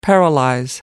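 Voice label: "paralyze", British English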